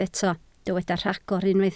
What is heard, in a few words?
"rhagor", Welsh